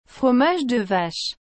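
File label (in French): "fromage de vache", French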